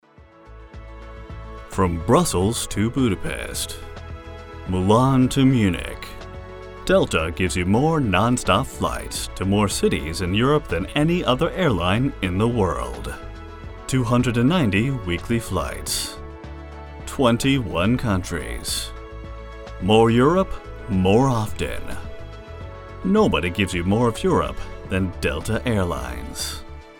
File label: airlines TV spot; Delta Airlines